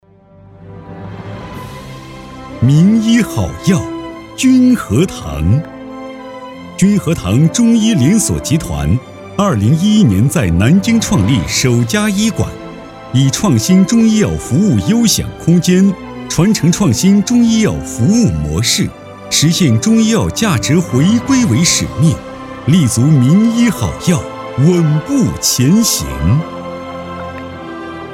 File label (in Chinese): 男 专题 浑厚专题